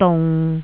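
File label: "dung6", Cantonese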